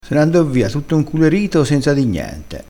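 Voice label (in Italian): "inculerito", crespinese dialettale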